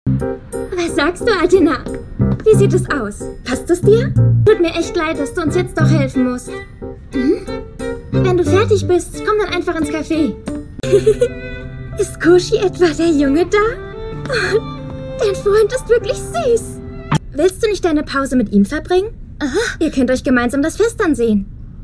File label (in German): Anime Synchron